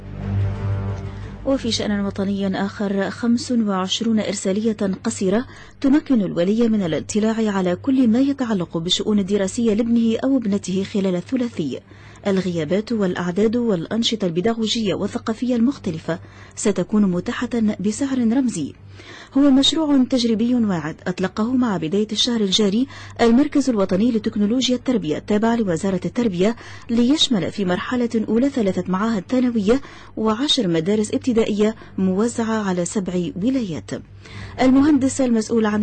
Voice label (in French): radio jeunes